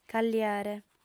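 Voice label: "calliare", female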